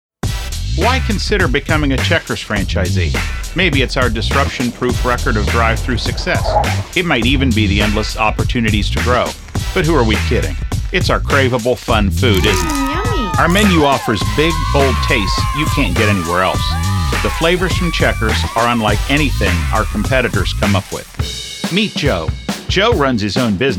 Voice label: Explainer Mix